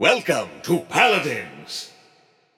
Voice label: Announcer Welcome; BombKing